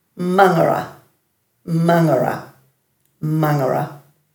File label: "mangara", Dhurga